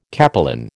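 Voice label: "capelin", American English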